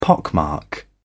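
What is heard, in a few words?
"pockmark", British English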